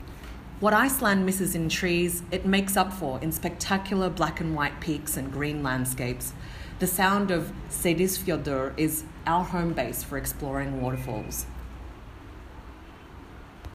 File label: Australian